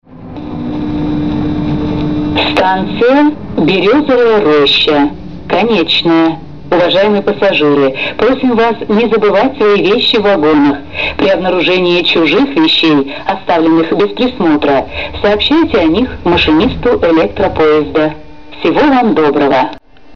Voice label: female